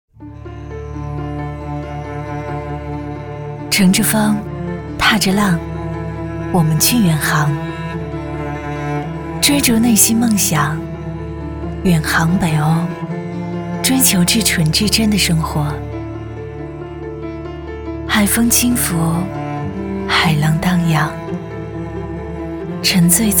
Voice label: 女 温情旁白; 北欧畅想

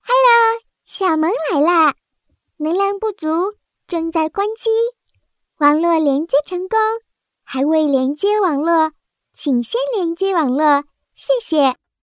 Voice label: 女 童声 女童

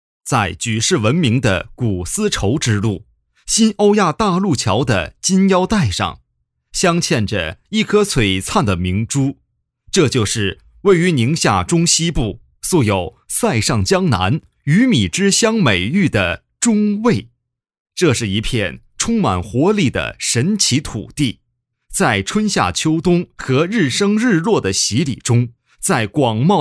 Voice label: 男 专题 宣传原声; 中卫宣传原声

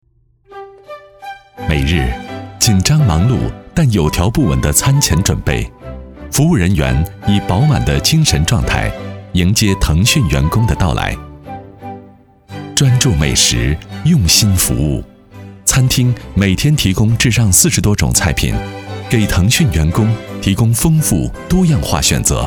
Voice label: 男 宣传片 餐厅 年轻磁性

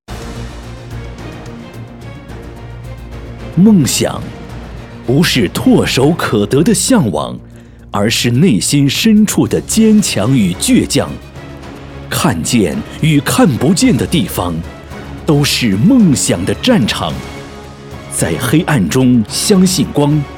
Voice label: 男-专题片-浑厚力度-寿险; 梦想

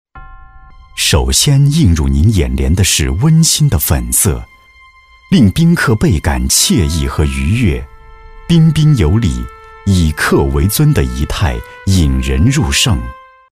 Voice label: 男 解说 展厅项目指引多媒体; 贵州展厅项目指引多媒体

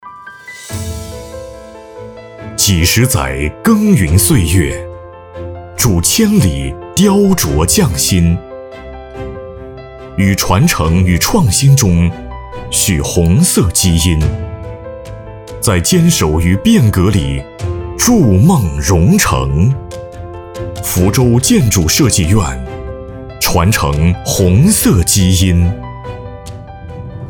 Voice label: 男 专题宣传 大气有力 建筑设计院; 福州建筑设计院

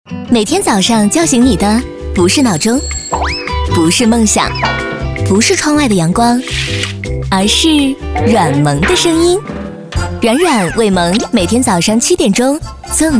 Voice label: 女 活力电台片花; 城市1065

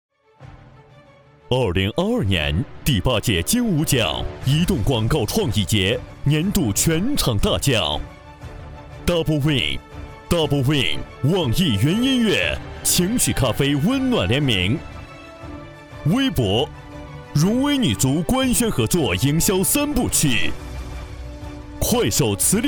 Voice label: 男, 颁奖; 金梧奖

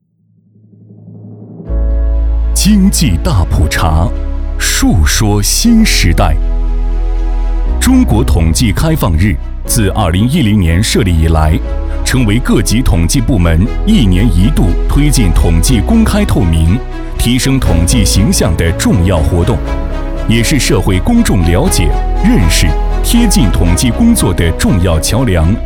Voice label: 男 专题 经济普查

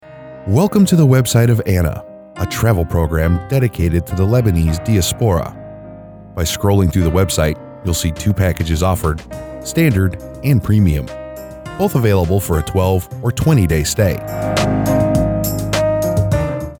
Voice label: 深情 旅游网站介绍

